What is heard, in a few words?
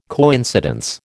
"coincidence", American